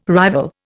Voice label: "rival", Indian